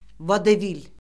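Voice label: "vodevil", Azerbaijani; Orfoqrafiya Lüğəti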